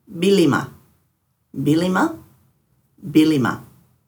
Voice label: "bilima", Dhurga; turtle